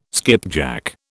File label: "skipjack", British